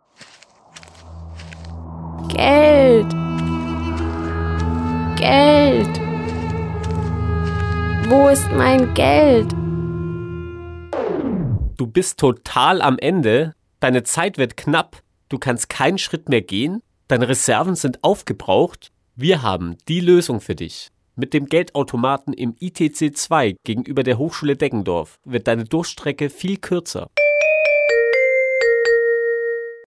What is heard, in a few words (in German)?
Werbung Sparkasse